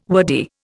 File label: "wordy", Indian